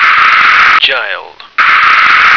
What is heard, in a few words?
"child", American